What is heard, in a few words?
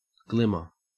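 "glimmer", Australian English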